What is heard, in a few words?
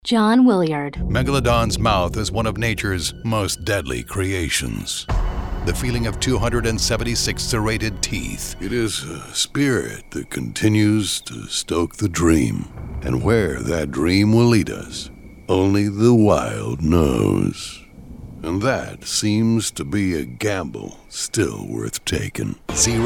narration men